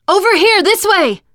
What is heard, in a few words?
follow me, teengirl, voice